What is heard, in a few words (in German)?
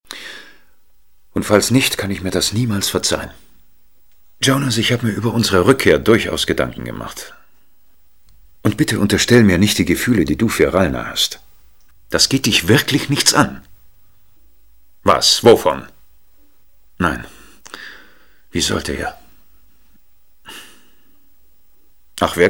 Schauspiel Neutral